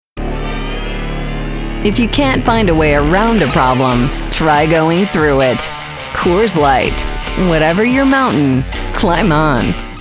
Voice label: women, commercial